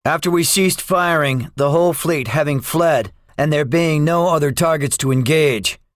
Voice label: debriefing, english; War Thunder

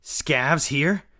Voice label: mutter; Escape from Tarkov

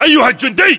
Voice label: rank private, battle chatter, english

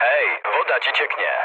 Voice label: damaged water mood low, speech pol; War Thunder

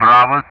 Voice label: "Bravo", Speech ENG; Ka-50; Alphabet; DCS

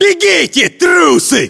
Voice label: inform attack grenade, battlechatter, ru; localized english

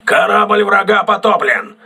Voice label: voice message enemy destroyed, crew ships russian

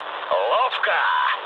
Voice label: trick, speech ru

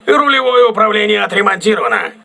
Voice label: rudder repaired, crew ships russian

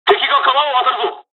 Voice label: dialog, dialogs japanese; War Thunder

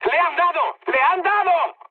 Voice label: aircraft destroyed mood high; War Thunder

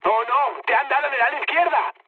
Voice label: left wing, mood high, speech; War Thunder

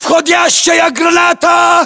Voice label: inform attack grenade, battlechatter, ru

